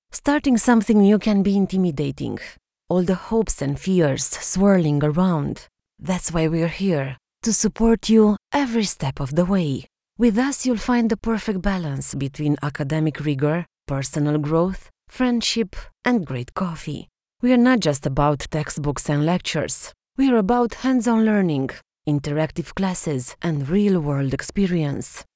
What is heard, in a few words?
english, english female voices romanian accent